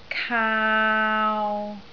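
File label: "kaau3", Cantonese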